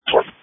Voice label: Police Tactical Encrypted